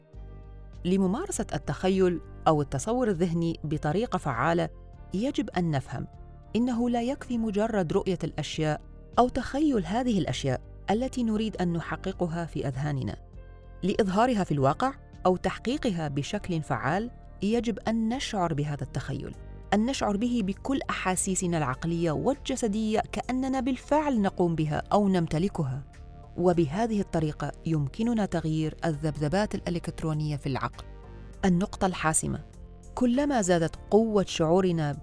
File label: Explainer